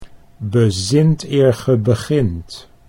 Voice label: "bezint eer ge begint", Dutch

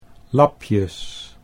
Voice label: "lapjes", Dutch

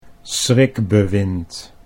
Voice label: "schrikbewind", Dutch

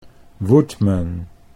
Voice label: woodman, Dutch English

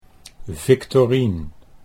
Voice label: "Victorien" Dutch

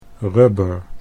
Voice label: "rubber", Dutch

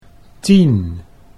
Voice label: "tien", Dutch

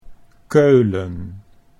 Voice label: "Keulen", Dutch

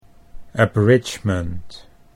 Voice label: "abridgement", Dutch English

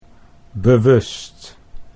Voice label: "bewust", Dutch

